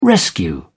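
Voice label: "rescue", British English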